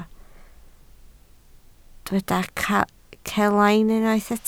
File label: "celain", Welsh